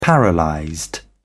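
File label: "paralysed", British English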